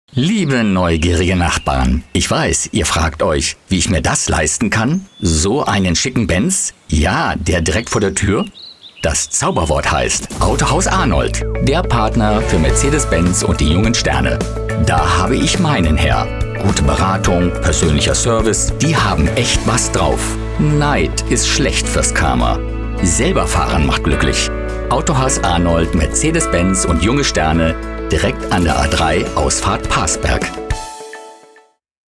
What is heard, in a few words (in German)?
Werbung; Junge Sterne